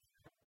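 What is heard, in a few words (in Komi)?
"юкӧмӧн", Komi, female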